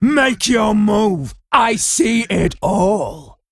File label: Female Worgen Play